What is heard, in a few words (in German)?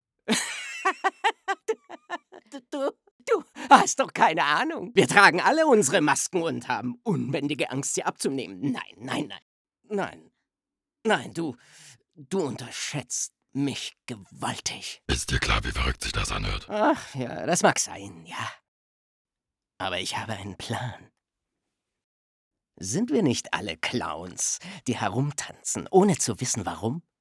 Synchron; Clowns